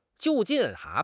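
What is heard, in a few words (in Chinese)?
"就近", Chinese; HSK; HSK6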